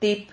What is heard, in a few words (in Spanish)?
"tip", Silabas